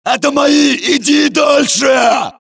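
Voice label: suppress, dialogue, Allies; mohaa; Mission 3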